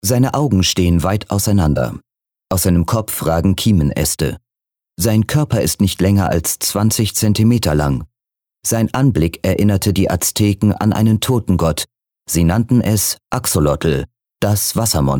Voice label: Dokumentarischer Text

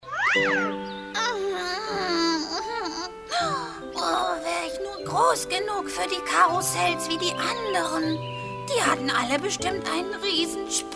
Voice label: trickfilm traurig einfall

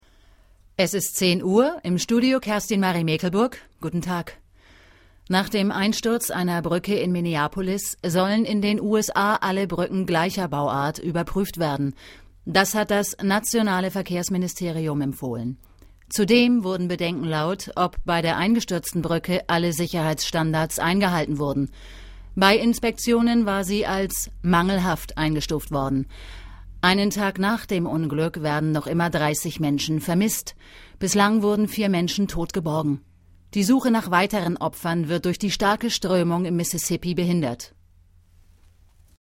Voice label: Nachrichten

